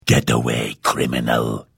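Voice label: Hello, Vo; Morrowind GOTY GOG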